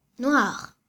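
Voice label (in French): "noir", French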